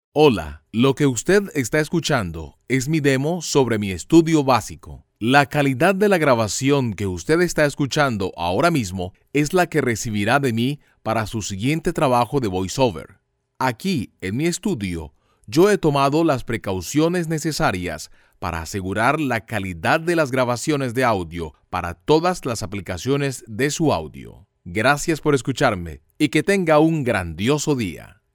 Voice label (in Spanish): ESTUDIO CALIDAD